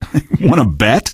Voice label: answer, male; Garry's Mod; hl2